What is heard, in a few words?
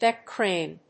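"DECK CRANE", English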